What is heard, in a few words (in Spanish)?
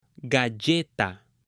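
"GALLETA", Spanish; ALIMENTOS; AppLenguaje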